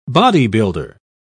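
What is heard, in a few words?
"bodybuilder", British English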